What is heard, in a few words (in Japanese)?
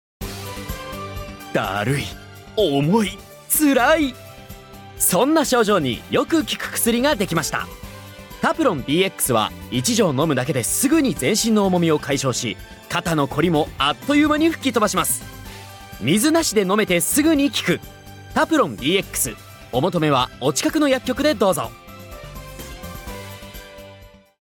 ナレーション